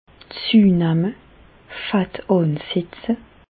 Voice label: "zuenamme", Bas Rhin; medecine; grossir

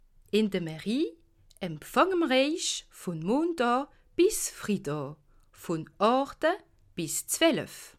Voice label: "mairie empfange", alsace

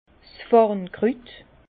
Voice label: "farn krut", Bas Rhin; fougère; vin

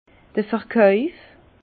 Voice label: "verkauf", Bas Rhin; vin; vente

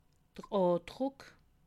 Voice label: "andruck", alsace

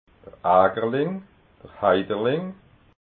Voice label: "agerling", alsace

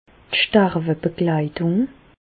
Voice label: "sterwebegleitung", Bas Rhin; medecine; soins palliatifs

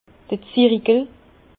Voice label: "zirikel", Bas Rhin; vin

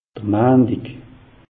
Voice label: "mantig", alsace; meteo

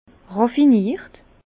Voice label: "raffiniert", Bas Rhin

